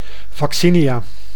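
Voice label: "vaccinia", Dutch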